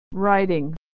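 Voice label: "writing", American English pronunciation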